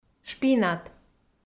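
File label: "шпинат", Komi, female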